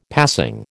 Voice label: "passing", American

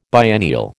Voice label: "biennial", American

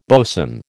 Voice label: "bosun", American

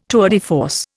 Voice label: "tour de force", Indian

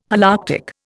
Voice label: "Holarctic", Indian

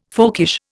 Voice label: "folkish", Indian

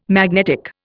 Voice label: "magnetic", Indian

